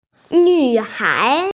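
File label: "nvhai", Mandarin Chinese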